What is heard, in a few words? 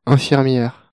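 "infirmiere", French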